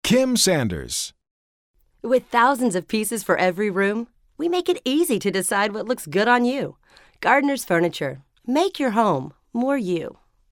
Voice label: retail announcer